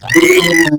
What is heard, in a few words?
Zombie